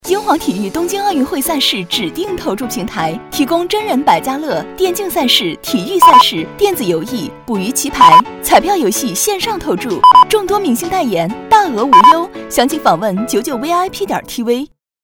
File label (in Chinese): 女 广告; 英皇体育